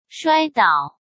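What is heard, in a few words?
"摔倒", Mandarin Chinese; hsk; chinese-flashcards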